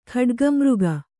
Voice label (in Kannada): "ಖಡ್ಗಿಮೃಗ", Kannada